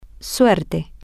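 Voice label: Spanish, female; lucky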